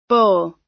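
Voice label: "bowl", US English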